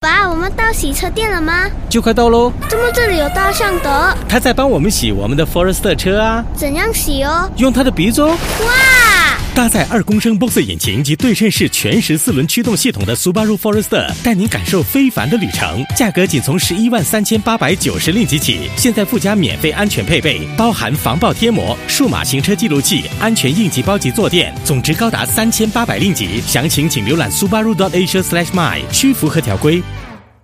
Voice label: Promo; Subaru Forester